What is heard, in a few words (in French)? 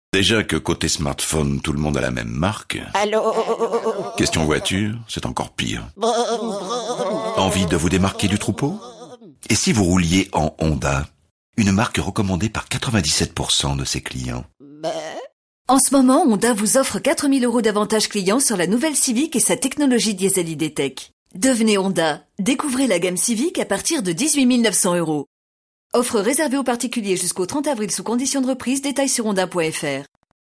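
spot, spots radio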